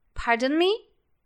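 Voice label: "Pardon me", English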